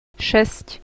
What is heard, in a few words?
"6", Slovak